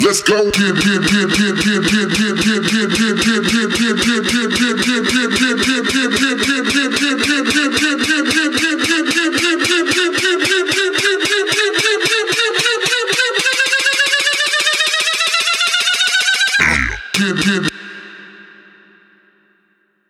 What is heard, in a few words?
Vox, 112, F-m, Tail